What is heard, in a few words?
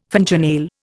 "fingernail", Indian